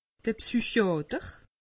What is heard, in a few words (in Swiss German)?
"psychiater", alsace; medecine